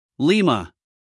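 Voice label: "Lima", US English, male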